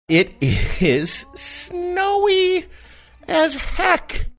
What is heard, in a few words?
saying it is snowy as heck funny